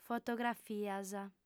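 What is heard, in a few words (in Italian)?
"fotografias", lingua sarda, female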